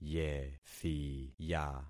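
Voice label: "yethiya", concatenated syllables; Affixing experiment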